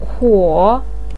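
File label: "kuo", Mandarin Chinese, third tone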